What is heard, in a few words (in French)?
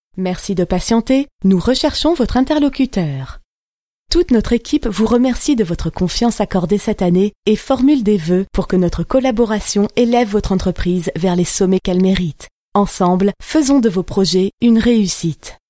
Annonce